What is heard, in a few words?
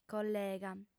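"collega", female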